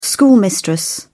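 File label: "schoolmistress", British English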